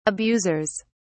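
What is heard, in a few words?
"abusers", English, British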